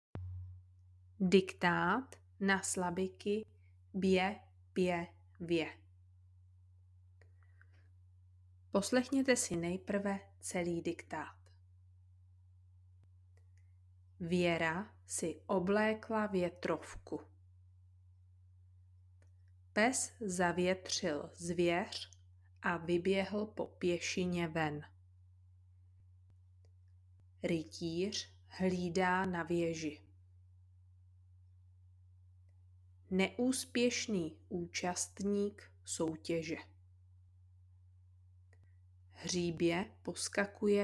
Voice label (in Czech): audiodiktaty; 2 rocnik be pe ve